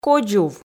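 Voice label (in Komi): "кодзув", Komi, female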